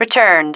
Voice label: "returned", female